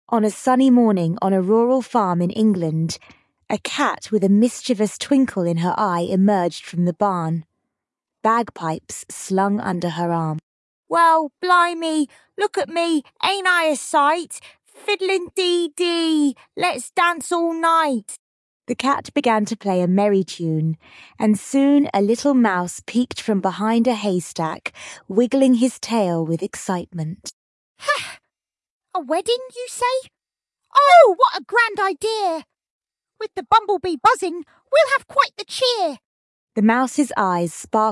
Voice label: fem brit story; A Cat Came Fiddling Out of a Barn

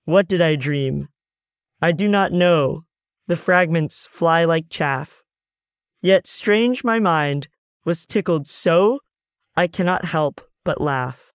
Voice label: What Did I Dream male us

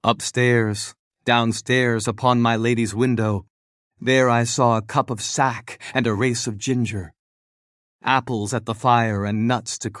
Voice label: Upstairs Downstairs male us

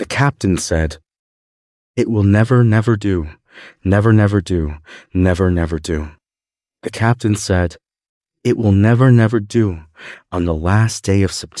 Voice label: The Big Ship Sails, male US

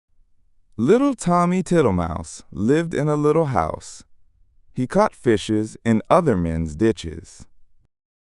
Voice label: Little Tommy Tittlemouse male us

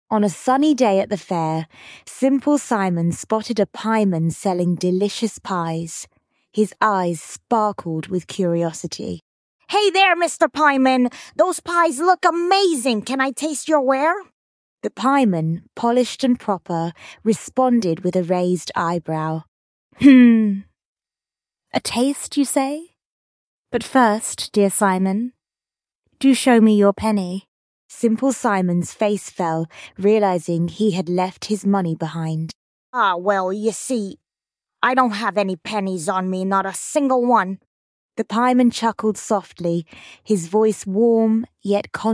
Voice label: fem brit story; Simple Simon

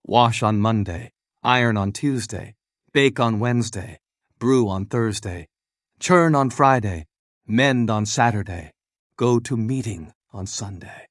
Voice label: Wash on Monday male us

